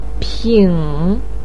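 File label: "ping" third tone, Mandarin Chinese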